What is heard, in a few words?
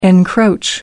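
"encroach", British English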